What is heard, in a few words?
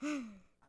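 Settler Child Fort Vox; Civ4 warlords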